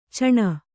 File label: "ಚಣ್ಣ", Kannada